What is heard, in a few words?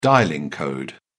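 "dialling code", British English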